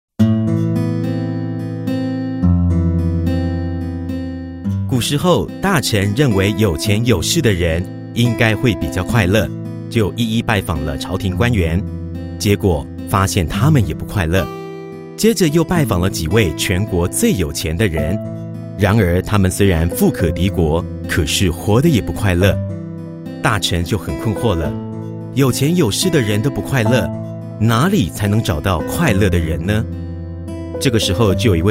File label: Chinese Taiwan Male; Multilingual Voiceover